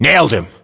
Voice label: inform killfirm infantry, battlechatter, English, US